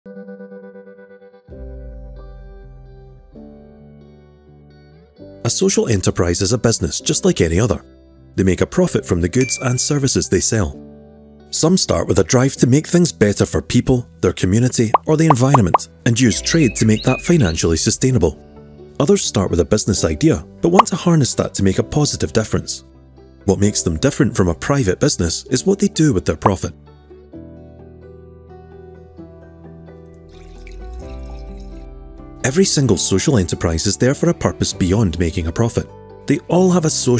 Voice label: Explainer, Scottish

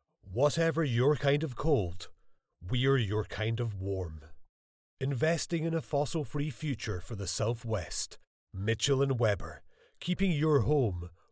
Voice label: Scottish voice over Commercial; Scotland

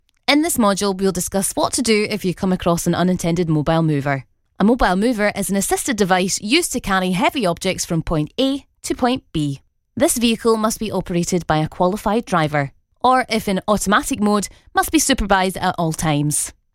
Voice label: E-Learning

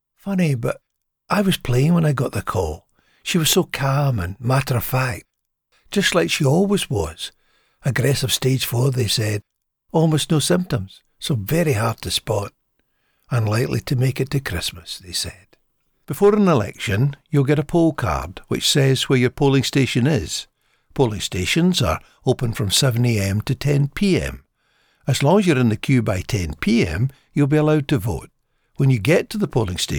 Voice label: Business Narration

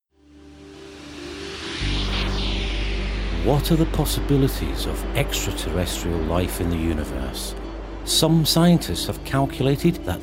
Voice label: Narration, Scottish voice over

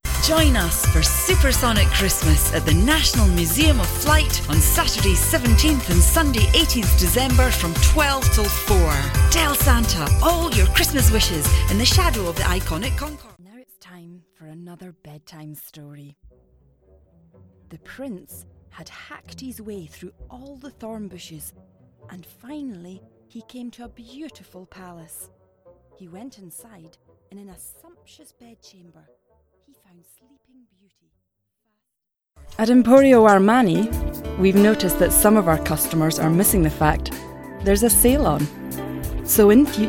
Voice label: Commercial Reel

